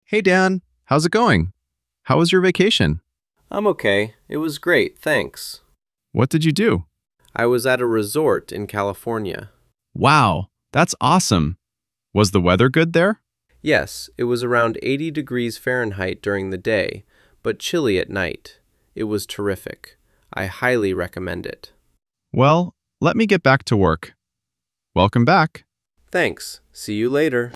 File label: Dialog; good times